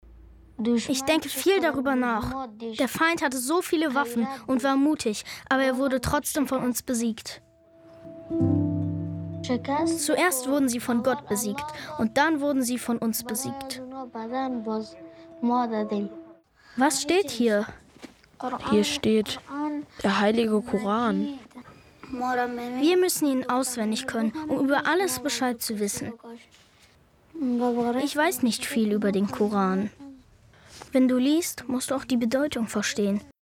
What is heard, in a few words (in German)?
doku; zdf; Kindersprecher